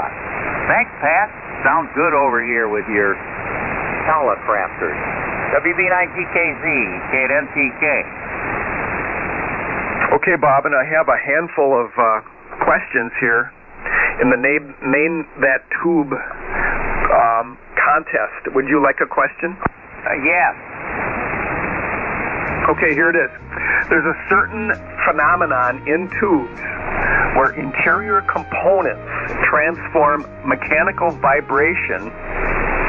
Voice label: Ten Tec Corsair 705 Mic; Empire,MI.